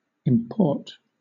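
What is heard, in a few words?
"import", British English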